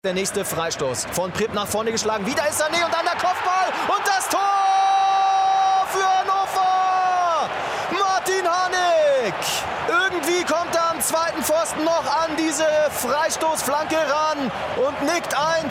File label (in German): Berlin Fussballkommentator